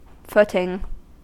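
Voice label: "footing" US English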